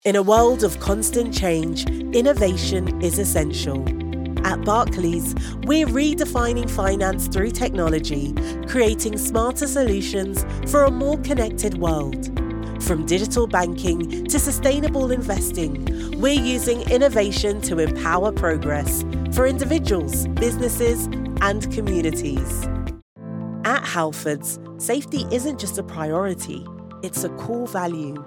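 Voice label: English UK female commercial; british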